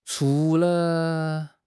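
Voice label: "chu2 le5", Mandarin Chinese; HSK3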